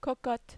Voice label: "cocotte", French